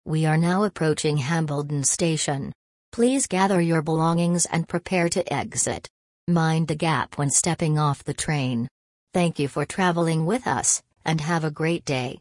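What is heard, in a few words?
train arrive announcement british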